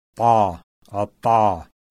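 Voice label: pharyngealized voiceless alveolar plosive; IPA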